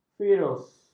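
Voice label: "firus", Malay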